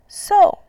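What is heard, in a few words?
"sou", Mandarin Chinese, fourth tone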